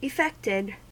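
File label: "effected", American English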